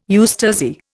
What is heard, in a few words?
"eustasy", Indian